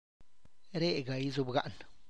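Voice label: Let's sit right here, Tlacochahuaya Zapotec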